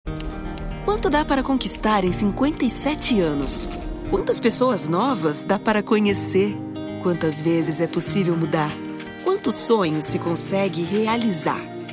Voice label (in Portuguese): Emotivo; Brasilia 57 anos